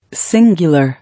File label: "Singular", English